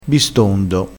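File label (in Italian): "bistondo", dialettale crespinese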